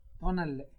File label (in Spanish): "sol", Náhuatl; INAH